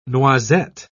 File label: "noisette", pronounce